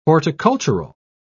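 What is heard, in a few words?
"horticultural", British English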